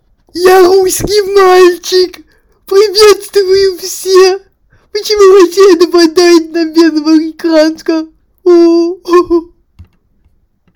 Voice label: funny russian boy

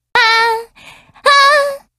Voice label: mic test; spitfire

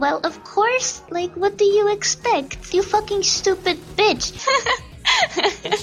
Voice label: you fucking stupid bitch laugh

